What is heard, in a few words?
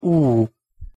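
"u hosszu", Hungarian pronunciation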